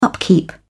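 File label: "upkeep", British English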